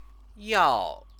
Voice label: "yao", Chinese; 要; need; verb